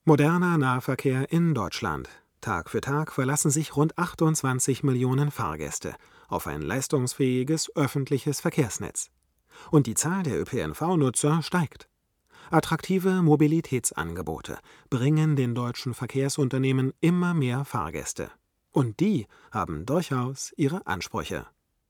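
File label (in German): m990 unbearbeitet, Sprecherraum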